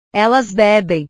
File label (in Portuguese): "elas bebem", portugues